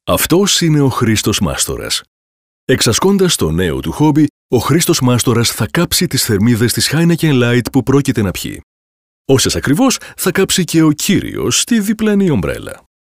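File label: Greek, male, e-learning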